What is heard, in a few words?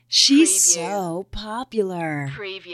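Female She So Popular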